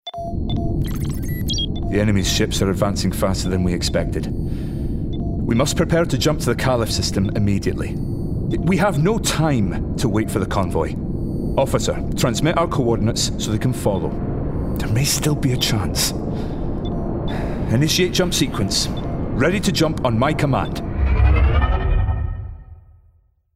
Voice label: Gaming Commander Glaswegian

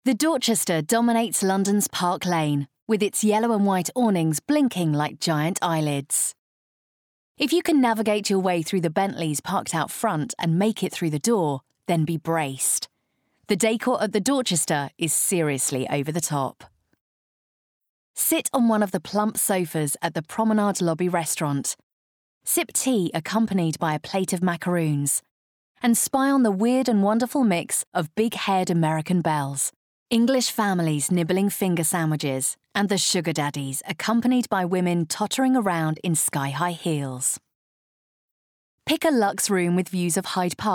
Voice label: Bookread; London

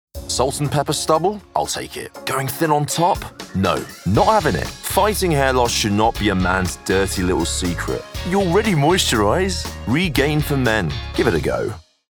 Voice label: Commercial; Regaine for Men

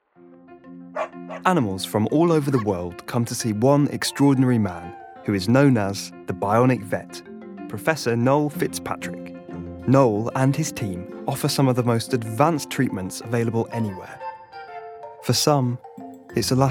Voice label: Documentary; The Bionic Vet

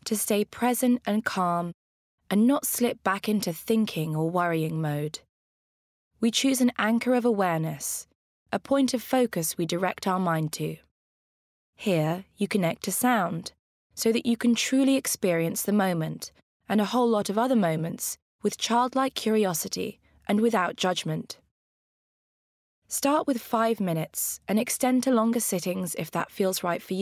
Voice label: Mindfulness Bookread

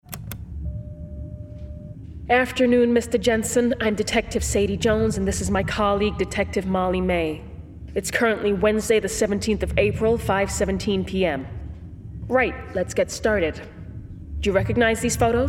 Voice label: American Detective

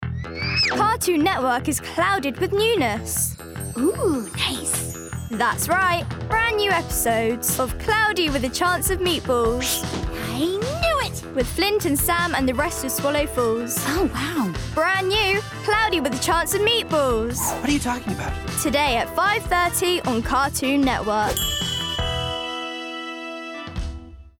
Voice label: Promo

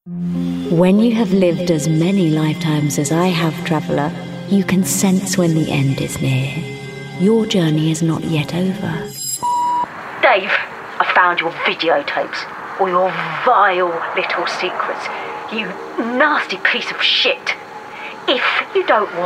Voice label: Gaming Voicereel; Gaming Showreel

